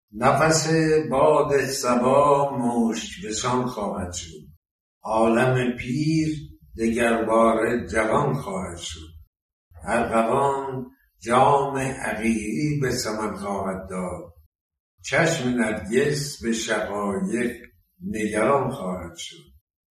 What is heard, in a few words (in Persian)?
نمونه صدای آقای